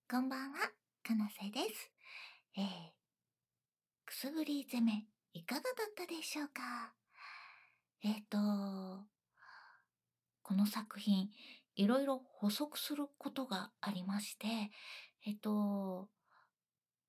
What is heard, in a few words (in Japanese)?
フリートーク, ASMR